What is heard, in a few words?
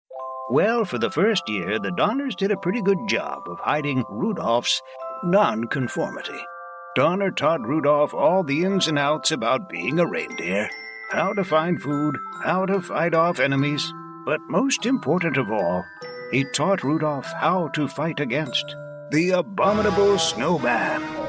Christmas Storyteller